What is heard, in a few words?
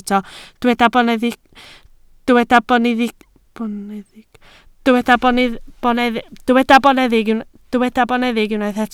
"boneddig", Welsh